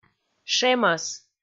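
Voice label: "шемӧс", Komi, female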